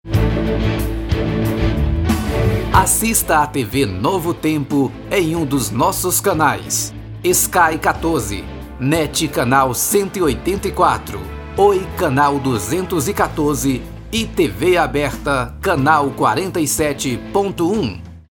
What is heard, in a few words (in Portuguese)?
locucao tv; Tv Novo Tempo